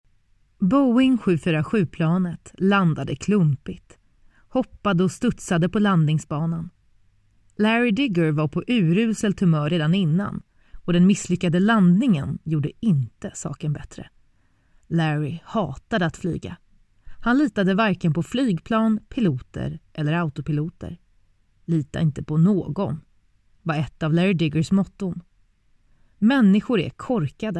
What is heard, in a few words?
Swedish Voice Over Audiobook Narration